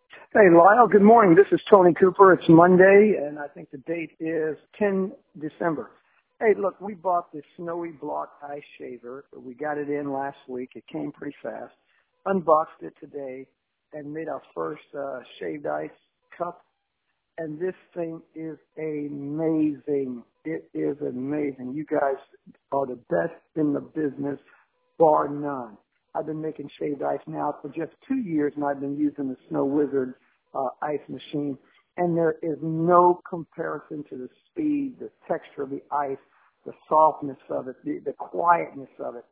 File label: testimonial voicemail